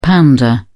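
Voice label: "pounder", British English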